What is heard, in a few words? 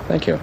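"thank you", male voice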